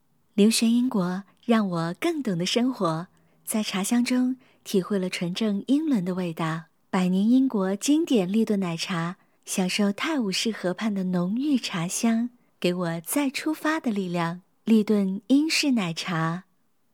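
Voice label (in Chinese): 国语 女声 国语广告 积极向上 亲切甜美; 国语广告-立顿奶茶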